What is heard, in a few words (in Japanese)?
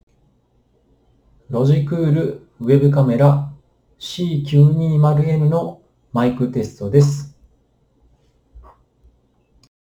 C920n ステレオマイク